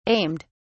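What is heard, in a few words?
"aimed", British English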